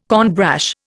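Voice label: "cornbrash", Indian English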